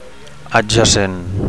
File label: "adjacent", Catalan; neighbouring (Br.); neighboring (Am.)